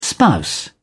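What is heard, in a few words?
"spouse", British English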